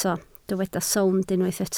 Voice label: "sownd", Welsh

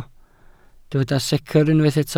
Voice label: "secr" Welsh

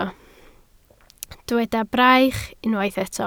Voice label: "braich", Welsh

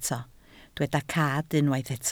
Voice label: "cad", Welsh

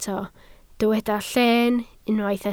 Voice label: "lleen" Welsh

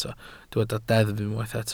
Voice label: "deddf" Welsh

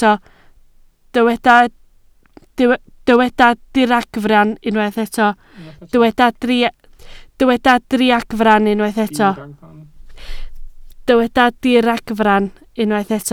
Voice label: "diragfarn", Welsh

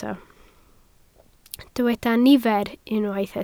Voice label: "nifer" Welsh

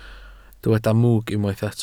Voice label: "mwg", Welsh

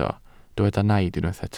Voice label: "naid" Welsh

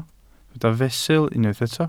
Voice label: "fesul", Welsh

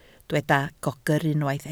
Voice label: "gogr", Welsh

